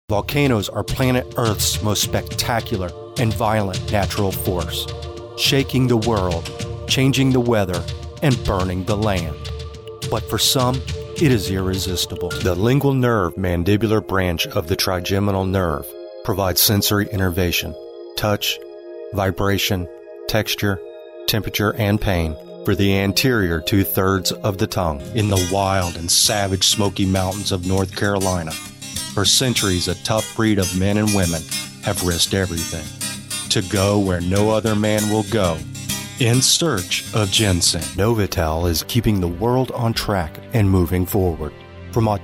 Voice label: Commercial narration